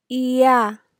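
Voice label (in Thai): "เอีย", Thai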